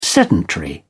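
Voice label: "sedentary", British English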